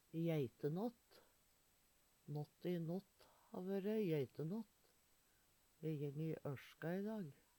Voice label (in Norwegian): jeitenatt, Numedalsmål